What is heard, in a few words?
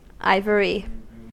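"ivory", US English; pronunciations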